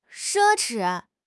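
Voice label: "奢侈", Mandarin Chinese; HSK6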